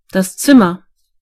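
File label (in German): "room", German; gcompris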